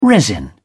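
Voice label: "resin", British English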